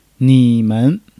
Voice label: "ni3 men", Chinese